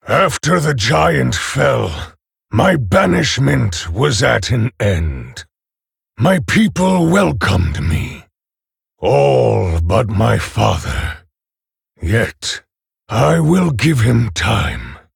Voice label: Male Orc Ogre; Victory